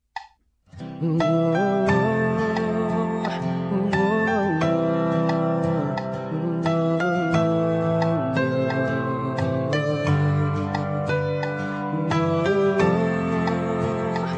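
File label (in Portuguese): MATERNIDADE romantic FEMININO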